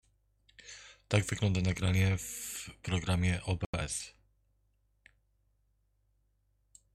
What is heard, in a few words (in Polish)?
Próba mikrofonu; OBS; Projekt przedwzmacniacza mikrofonowego